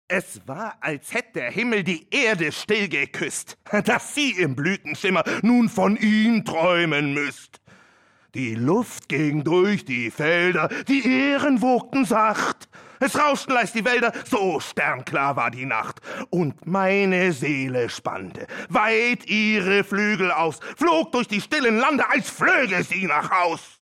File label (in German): aggressiv